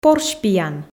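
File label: "порсьпиян" Komi, female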